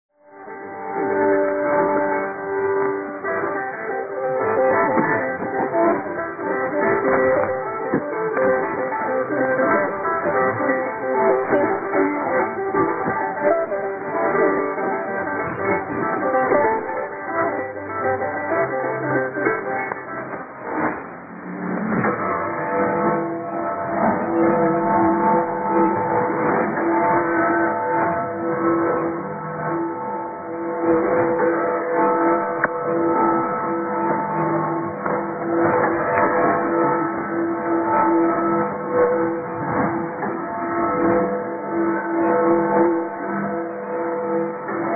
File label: lao national radio, 6130k